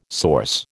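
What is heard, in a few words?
"source", American English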